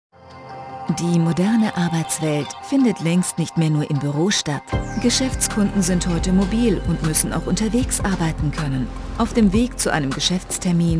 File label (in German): Produktfilm warm; o2 Free Business